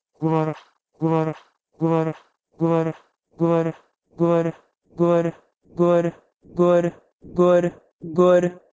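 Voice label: Proto-Indo-European gwerh2 to Punjabi gur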